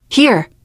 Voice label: alert give item, teen girl, survivor voice